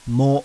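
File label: kana "MO", Japanese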